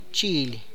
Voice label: "knife", tlacochahuaya